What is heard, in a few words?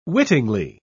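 "wittingly", English, British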